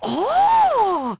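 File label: female wow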